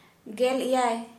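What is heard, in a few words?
Santali, female, seventeen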